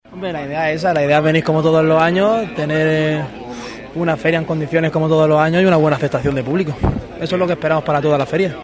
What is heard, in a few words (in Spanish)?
VOZ FERIANTE